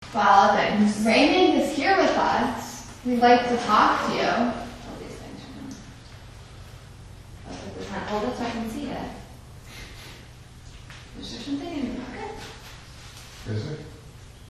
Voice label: voice in power room; rolling hills